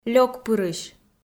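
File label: "лёкпырысь" Komi, female; kpv audio dict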